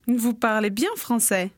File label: conversation